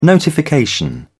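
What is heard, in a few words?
"notification", British English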